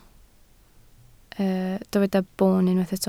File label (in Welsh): "boon" Welsh